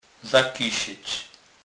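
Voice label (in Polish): "zakysec", Kurpie dialect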